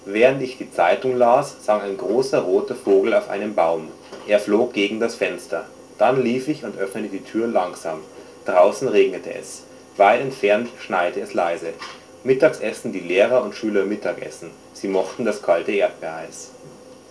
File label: german